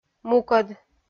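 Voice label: "мукӧд" Komi, female; kpv audio dict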